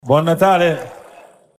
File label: "BUON NATALE" (MERRY CHRISTMAS ITALIAN)